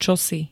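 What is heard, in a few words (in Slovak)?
"čosi", Slovak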